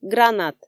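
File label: "гранат", Komi, female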